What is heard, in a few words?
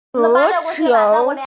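"football", hearing impaired; zu2qiu2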